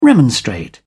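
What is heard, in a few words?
"remonstrate", English, British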